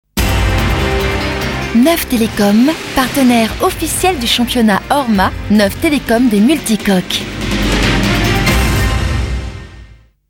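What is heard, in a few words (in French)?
legere, comédienne voix off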